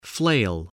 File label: "flail", spelling